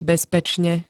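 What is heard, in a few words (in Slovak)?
"bezpečne", Slovak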